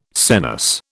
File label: "senesce", British